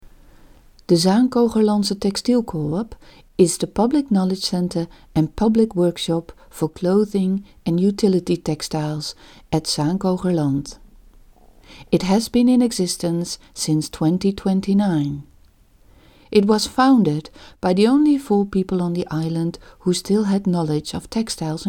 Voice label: voiceover ENG; Zaankogerland